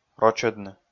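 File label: "рочӧдны", Komi, male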